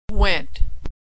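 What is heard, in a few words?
"went", American English; verb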